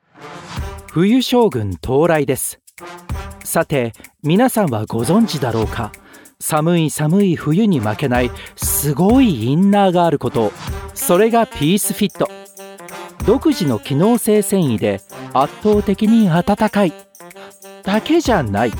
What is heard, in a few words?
Japanese